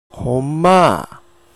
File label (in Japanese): "honma", hougen; ほんまぁー; 「ほんとに？」とか「そうなの？」という意味。; 方言